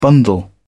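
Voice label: "bundle", British English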